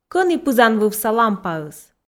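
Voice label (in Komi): кӧні пызанвывса лампаыс, Komi, female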